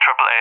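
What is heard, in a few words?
"AAA", English; Allied Flight; DCS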